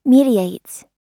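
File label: "mediates", American English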